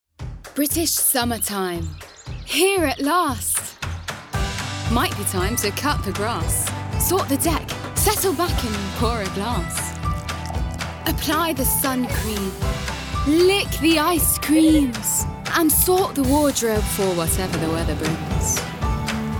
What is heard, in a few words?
female arabic and english english compilation